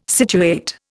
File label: "situate", Indian